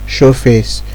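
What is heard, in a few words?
"show face", Nigerian Pidgin, male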